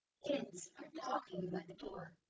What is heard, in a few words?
calm sad generated, speech; GAN for speech spectrogram